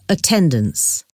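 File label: "attendance", English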